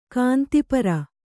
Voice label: "ಕಾಂತಿಪರ", Kannada

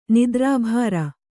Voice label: "ನಿದ್ರಾಭಾರ", Kannada

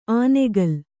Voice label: "ಆನೆಗಲ್", Kannada

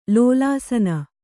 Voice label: "ಲೋಲಾಸನ", Kannada

